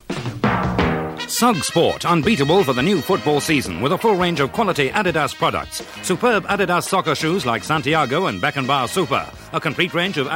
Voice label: Radio Hallam Advert - Sports; Suggs